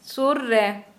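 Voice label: Santali female near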